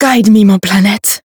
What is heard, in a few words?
Voice variable chant; herena